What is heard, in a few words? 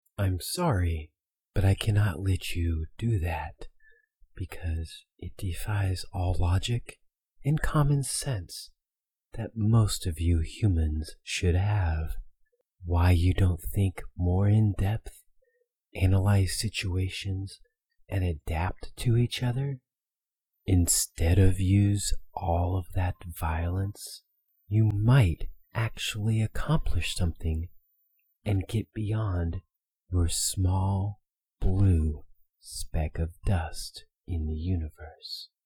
Voice Demo Alien Advice